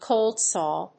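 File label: "cold saw", English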